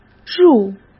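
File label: "入", Mandarin; chinese flashcards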